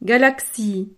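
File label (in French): "galaxie", French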